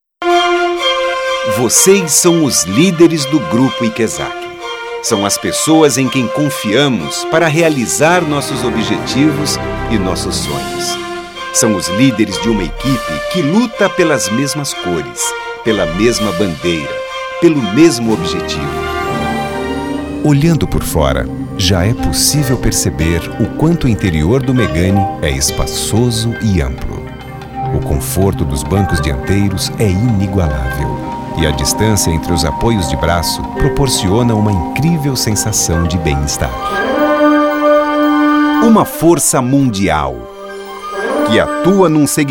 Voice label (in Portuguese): Loc Institucionais